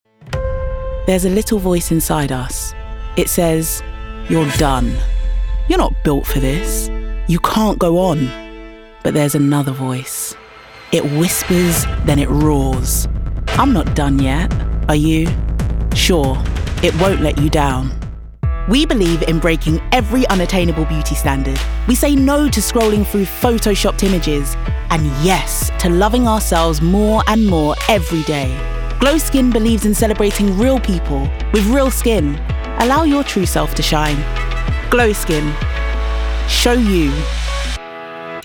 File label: Commercial